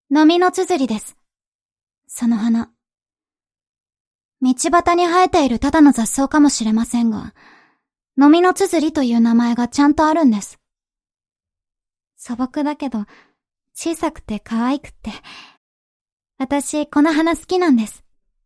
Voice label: 女子高生（クール）

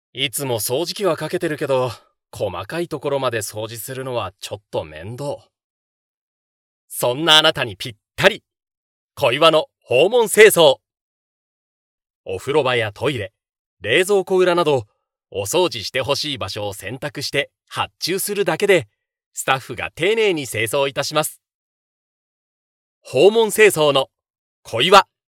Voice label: NA 明るめ

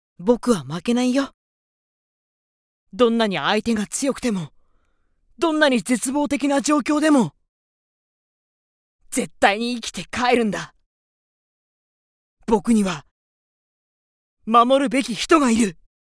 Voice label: 主人公系

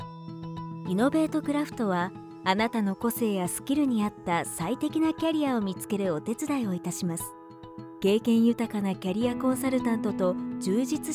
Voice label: クール, ナレーション_クール

